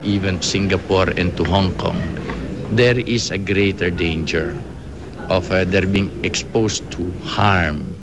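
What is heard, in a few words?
ESL (Philippines male)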